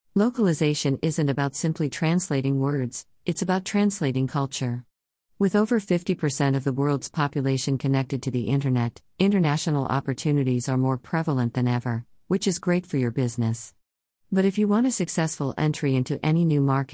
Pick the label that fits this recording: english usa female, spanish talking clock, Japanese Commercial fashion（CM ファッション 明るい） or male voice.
english usa female